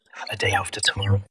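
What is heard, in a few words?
VoiceActing es, Male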